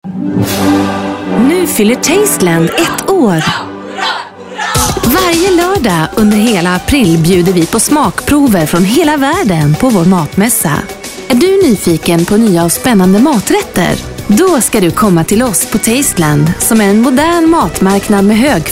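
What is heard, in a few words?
SWE F commercial, Swedish, female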